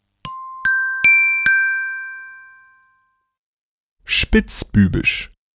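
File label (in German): "spitzbübisch", Deutsch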